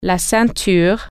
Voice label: "belt", French

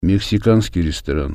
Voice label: mexican restaurant, russian

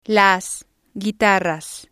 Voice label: "las guitarras", spanish

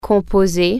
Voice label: dial, french

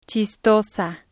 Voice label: "chistosa", spanish

